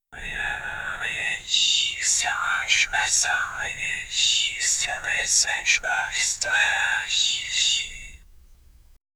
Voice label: ghostly whispers saying

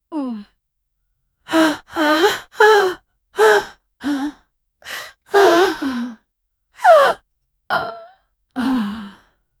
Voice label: aggressive demonic female

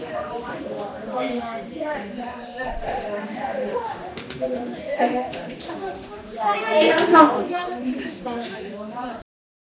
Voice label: students talking together, classroom

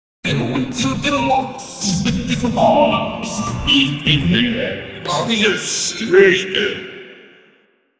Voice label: korean emperor

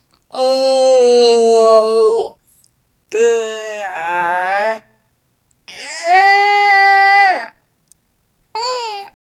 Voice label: "o no", oh no

